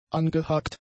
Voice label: "angehakt", German; adjectives